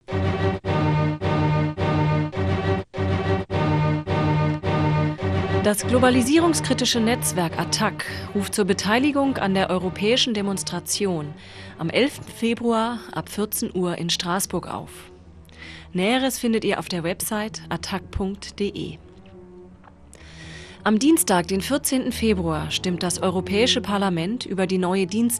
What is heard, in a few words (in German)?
jingle, Radio-Jingles; attac; strasbourg